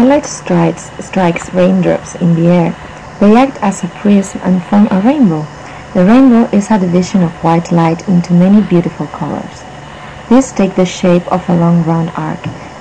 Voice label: spain

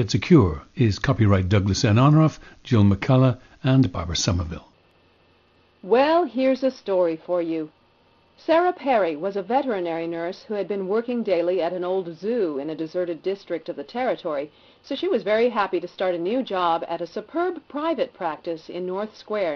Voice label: General American

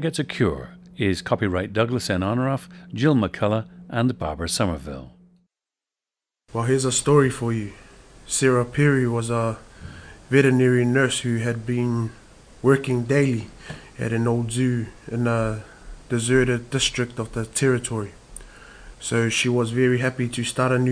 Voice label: New Zealand

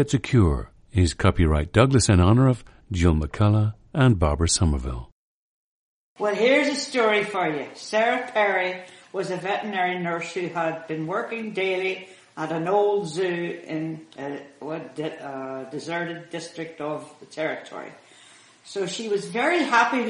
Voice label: north ireland

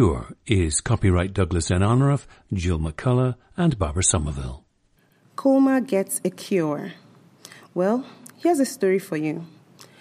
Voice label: nigeria

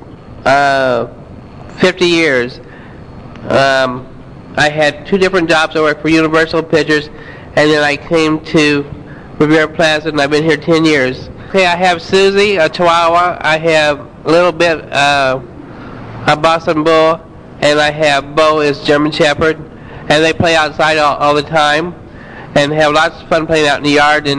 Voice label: missouri, dialects

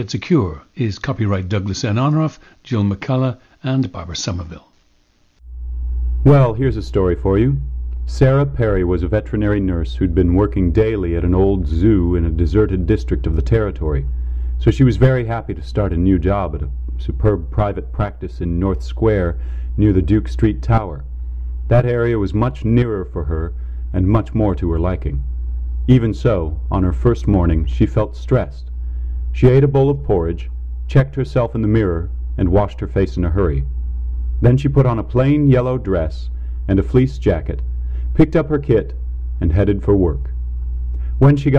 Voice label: General American

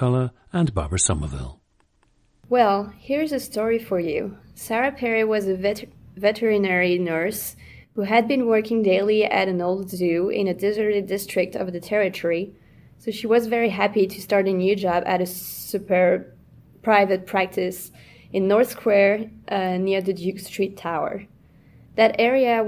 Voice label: france, dialects

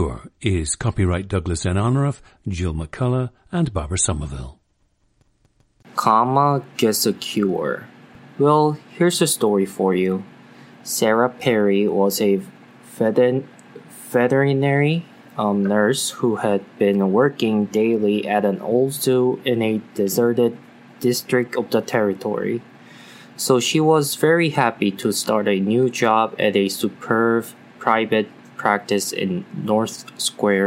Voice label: south korea

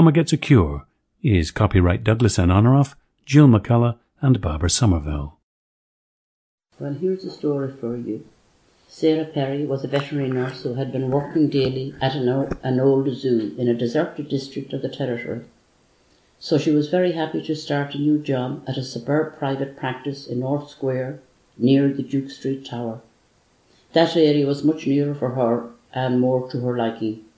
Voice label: ireland, dialects

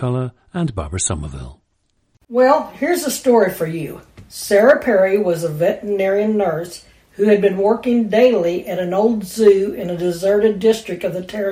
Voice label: missouri, dialects

